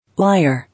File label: "Liar", English